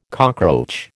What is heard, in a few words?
"cockroach", American